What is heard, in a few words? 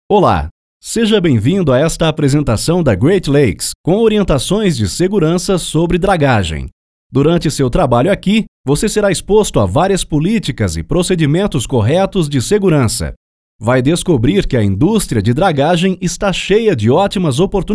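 Natural Speak, Brazilian Portuguese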